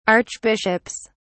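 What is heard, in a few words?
"archbishops", British English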